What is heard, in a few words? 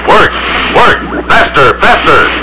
work, robot; robot b9